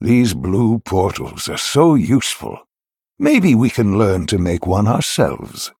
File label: Male Human